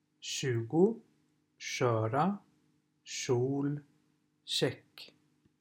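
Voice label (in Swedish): "tjugo", Swedish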